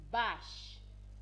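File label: "bash", Nigerian Pidgin, female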